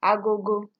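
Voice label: "agogo", Nigerian Pidgin, female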